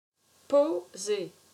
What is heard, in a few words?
"Poser", french